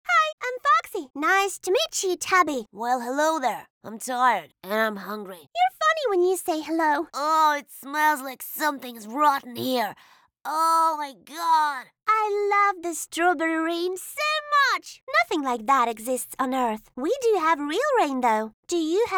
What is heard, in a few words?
boy and girl voice